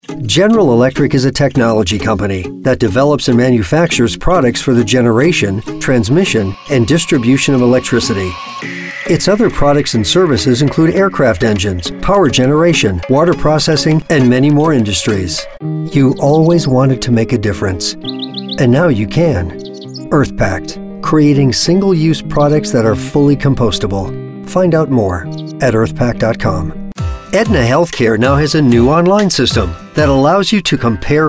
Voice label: Corporate